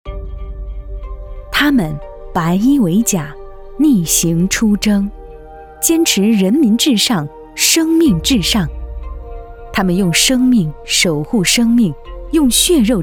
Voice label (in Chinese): 国语女-医院宣传; 医养